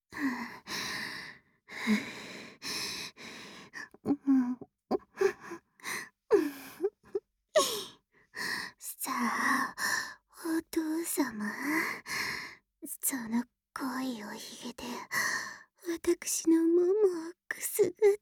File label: Whisper,ASMR